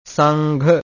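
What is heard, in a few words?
"sangha", Pali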